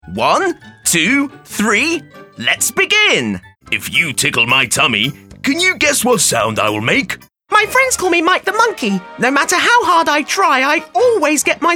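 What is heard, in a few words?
British English, toys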